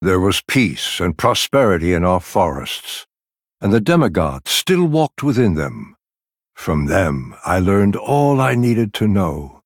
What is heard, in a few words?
Male Night Elf